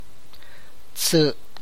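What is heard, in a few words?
Tlacochahuaya Zapotec; ten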